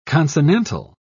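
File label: "consonantal", English, British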